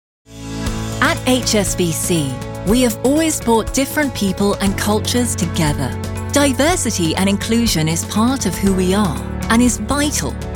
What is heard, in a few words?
UK Corporate Explainer